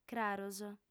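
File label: "craros", female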